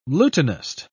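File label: "lutanist", British English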